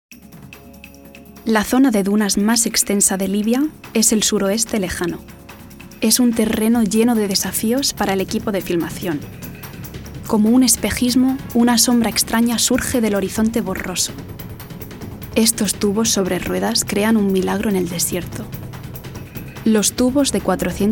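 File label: European Spanish, Documentary; GoLocalise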